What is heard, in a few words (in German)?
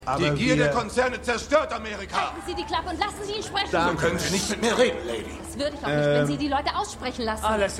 Versammlungsmann Frau; AlaskaDaily